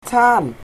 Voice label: "fire", welsh